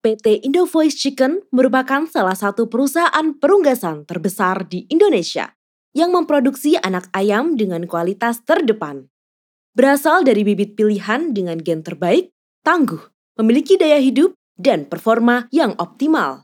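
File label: formal Indonesian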